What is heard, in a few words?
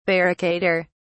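"barricader", British English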